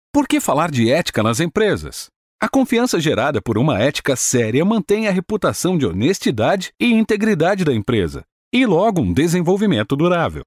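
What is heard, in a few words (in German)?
Portuguese Fremdtake Dynamisch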